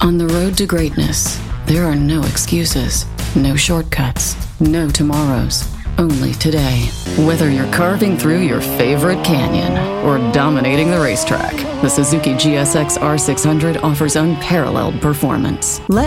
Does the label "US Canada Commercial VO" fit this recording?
Yes